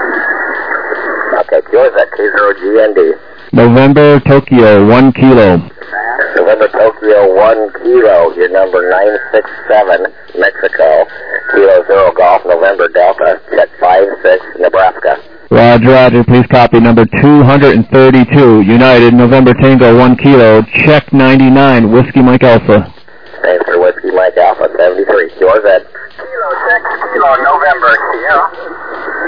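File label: upper sideband 21MHz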